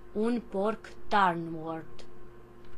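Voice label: pig golden description, Romanian; animals; mammals; stamps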